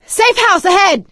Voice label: safe spot ahead, survivor voice; Left 4 Dead 2; producer